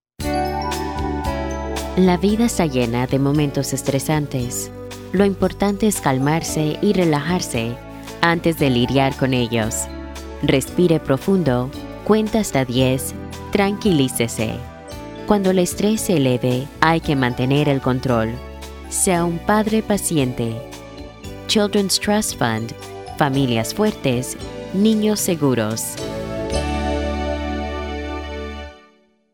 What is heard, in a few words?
Stress Uh Oh Spanish